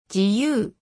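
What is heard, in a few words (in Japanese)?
"ぢゅ", 日本語; 五十音基礎聽解題目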